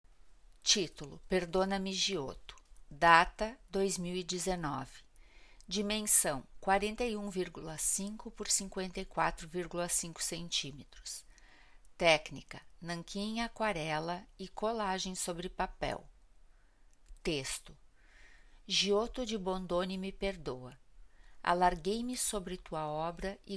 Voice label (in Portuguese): narração; Desenho; galeria virtual